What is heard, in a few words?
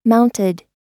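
"mounted", American English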